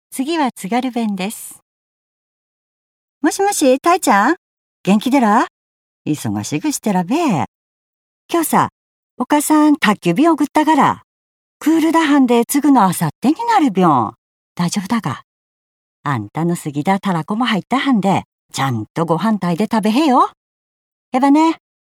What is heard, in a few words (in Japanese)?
方言